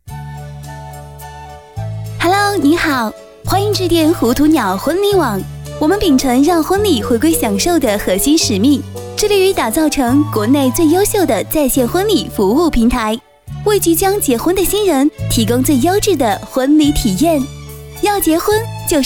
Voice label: 女, 彩铃; 糊涂鸟

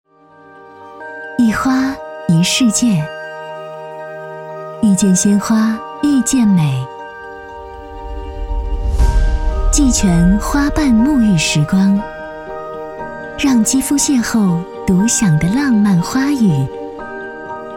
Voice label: 女 广告 温柔; 香水沐浴露

